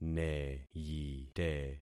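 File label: "neyite", concatenated syllables; Affixing experiment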